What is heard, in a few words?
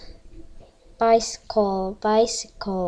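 "bicycle", spoken word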